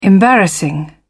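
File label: "embarrassing", British English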